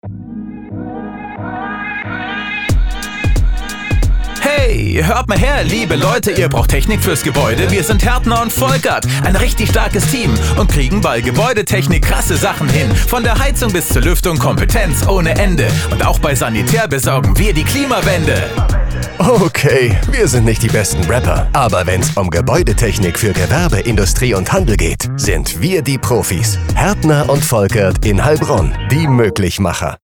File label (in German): Funkspot; Hertner Volkert